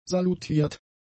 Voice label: "salutiert", german; adjectives